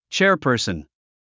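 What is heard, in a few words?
"chairperson", English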